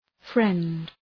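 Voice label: "friend", English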